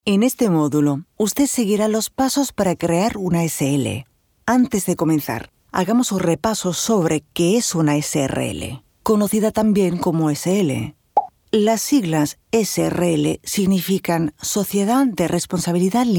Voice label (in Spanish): How To Castilian Spanish